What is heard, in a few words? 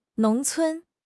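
"nong2 cun1", Chinese; 汉语口语新时代-初级下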